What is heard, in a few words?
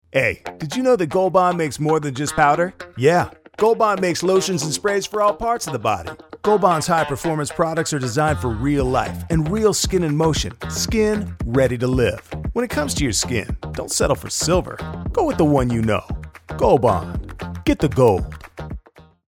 City Casual; Gold Bond